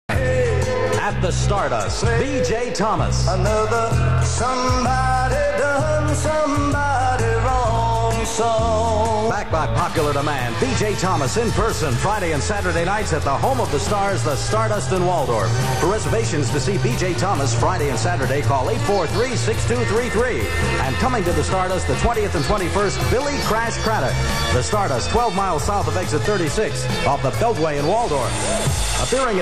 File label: spot; cellar door concerts agency